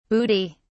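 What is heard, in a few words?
"bootie", British English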